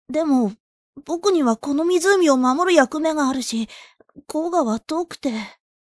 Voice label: 気弱で大人しい少年; 仙術師は恋におぼれる; 小雲; 「でも･･･僕にはこの湖を守る役目があるし、黄河は遠くて･･･」

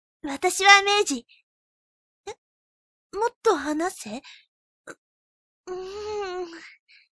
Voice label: "物腰優しい感じの女の子"; Rezson; メージ; 「私はメージ。え？もっと話せ？う、う〜ん･･･

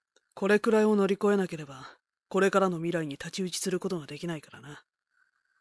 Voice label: 真面目な青年風; HopE〜儚い記憶〜; フォルテ; 「これくらいを乗り越えなければ、これからの未来に太刀打ちすることが出来ないからな

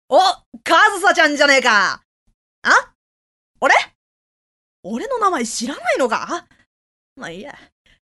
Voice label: 明るく活発系な少年; 十二支幻想記; 「お、上総ちゃんじゃねぇか。 あ？ 俺？ 俺の名前知らないのか？ ま、いいや」

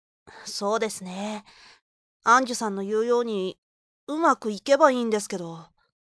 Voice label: 真面目で明るい少年; ルイ; 「そうですねぇ･･･アンジュさんの言うように、うまくいけばいいんですけど

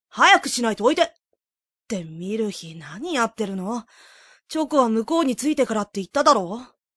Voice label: 優しい雰囲気を持つ兄; 僕の高校生活１年目; 秋元爽太; 「蒼き風よ、我に力を

